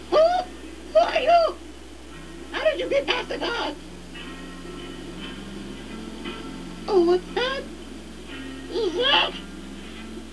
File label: voice change